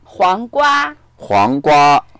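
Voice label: "huang2gua1", Mandarin Chinese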